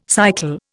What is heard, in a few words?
"cycle", Indian